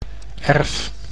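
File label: "erf", Dutch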